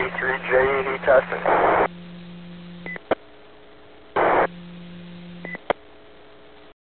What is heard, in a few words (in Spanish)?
conversacion